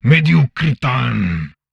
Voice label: humanoid male; Stellaris